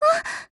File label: MOOD JP; CAR